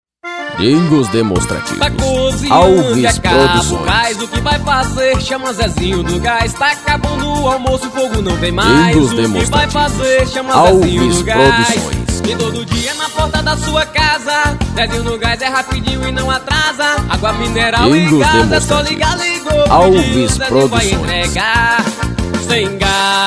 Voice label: jingles